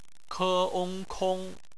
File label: "kong", Chinese; bpmf